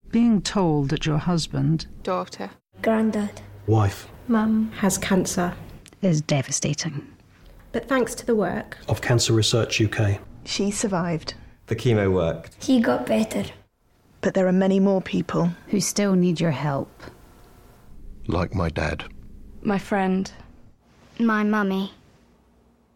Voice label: radio ad